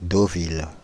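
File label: "Deauville", French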